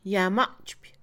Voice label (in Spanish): destruir, Mixe, Mujer; Posesión; INALI